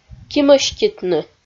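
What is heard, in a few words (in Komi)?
"кимӧститны", Komi, female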